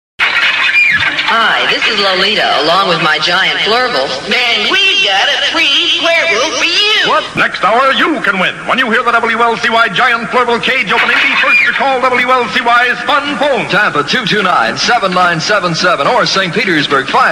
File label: contest promo; WLCY; Lolita